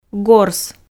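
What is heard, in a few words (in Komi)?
"горс", Komi, female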